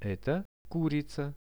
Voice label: "eto kurica", Russian; Это курица; birds; RUSSIAN VISUAL DICTIONARY